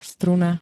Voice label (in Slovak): "struna", slovenské